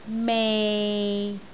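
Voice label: "mei6", Cantonese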